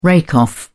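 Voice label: "rake off", British English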